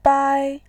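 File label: "bai", Mandarin Chinese, tone 1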